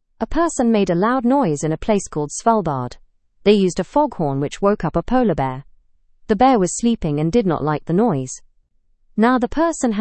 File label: learn english through news beginner fast; person fined for waking polar bear